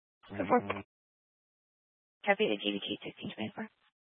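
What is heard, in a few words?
Sheriff Dispatch, Scanner audio recording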